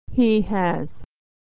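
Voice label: "he has", American English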